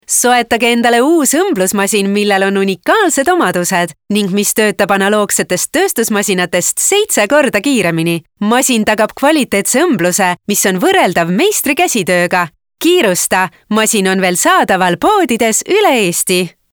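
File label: Estonian Female Positive, vo examples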